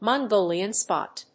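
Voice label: "Mongolian Spot", English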